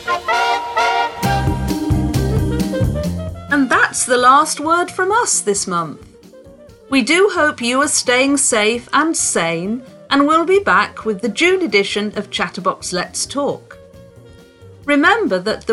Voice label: Closing Announcement